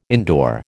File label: "indoor", American